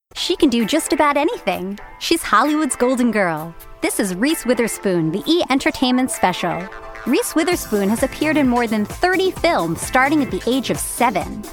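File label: female English US narration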